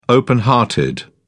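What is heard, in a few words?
"open hearted", British English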